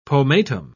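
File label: "pomatum", British English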